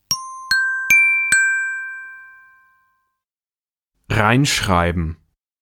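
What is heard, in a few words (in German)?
"reinschreiben", German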